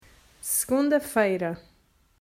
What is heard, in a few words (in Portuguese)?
"Segunda", Portuguese